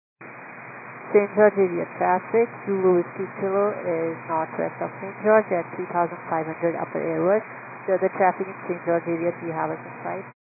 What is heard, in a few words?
122 925MHz, scanner radio